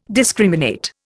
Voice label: "discriminate", Indian

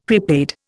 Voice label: "prepaid", Indian; Dictionary

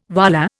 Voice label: "wallah", Indian

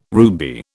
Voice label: "ruby", British

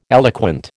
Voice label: "eloquent", American

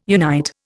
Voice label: "unite", Indian

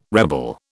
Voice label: "rebel", British

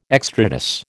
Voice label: "extrados", American

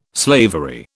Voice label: "slavery", British

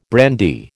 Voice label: "brandy", American

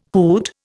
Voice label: "hoot", Indian

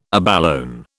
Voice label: "abalone", British

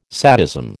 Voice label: "sadism", American

